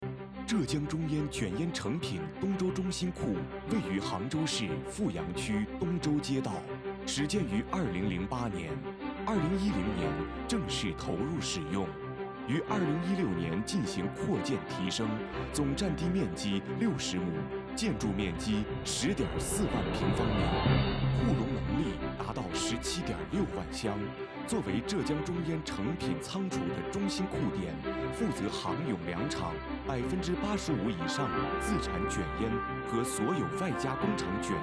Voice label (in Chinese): 男声宣传企业类